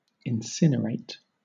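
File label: "incinerate", British English; pronunciations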